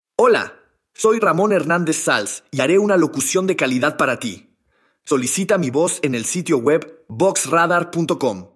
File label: Spain